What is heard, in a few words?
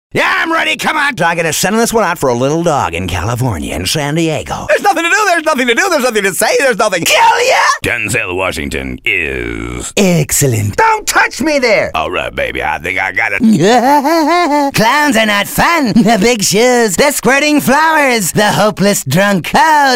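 Animation, Voice Over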